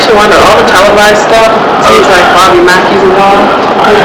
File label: whispering